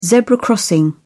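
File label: "zebra crossing", British English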